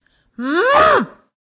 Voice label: human female attack voice bottom

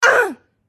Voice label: human female wound

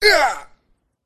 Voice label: human male wound

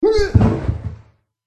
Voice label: human male diver death

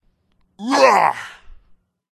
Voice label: human male attack voice bottom

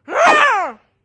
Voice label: human female attack voice bottom

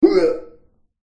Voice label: human male diver wound

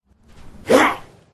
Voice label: elf attack voice bottom

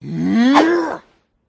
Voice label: human male attack voice bottom

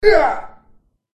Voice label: human male diver wound

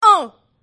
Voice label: human female wound

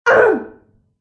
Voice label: human female diver wound

